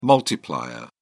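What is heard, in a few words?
"multiplier", English, British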